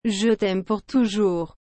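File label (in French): "Je t'aime pour toujours", French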